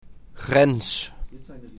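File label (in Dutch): "Grens", Dutch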